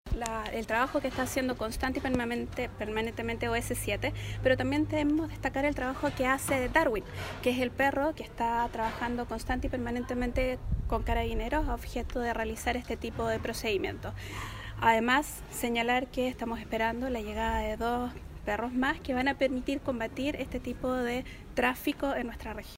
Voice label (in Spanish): Cuña; Gobernadora Copiapó